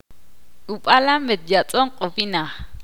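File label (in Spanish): el jaguar mató a los hombres, ixil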